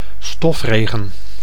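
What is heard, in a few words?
"stofregen", Dutch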